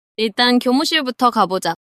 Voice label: neutral